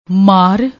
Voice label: "maar", Punjabi; ਮਾਰ